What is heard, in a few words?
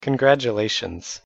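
pronunciation "congratulations", English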